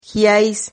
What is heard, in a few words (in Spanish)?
"giais", Silabas